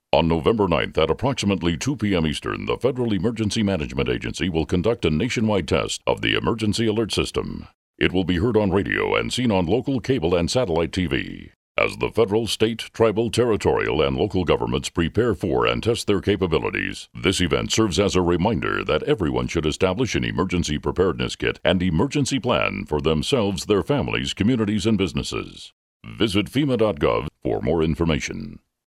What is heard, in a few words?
national EAS test PSA announcement